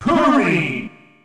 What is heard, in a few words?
Japanese Announcer; Jigglypuff; SSB